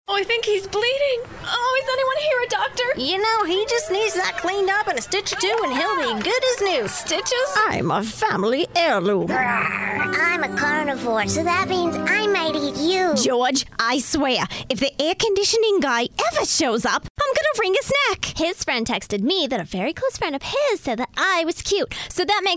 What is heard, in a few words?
characters female